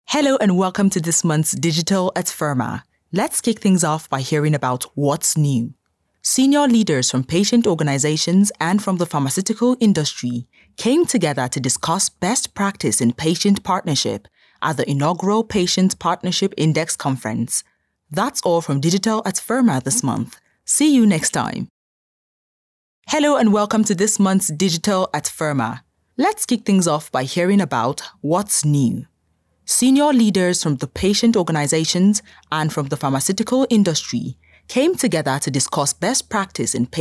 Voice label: Corporate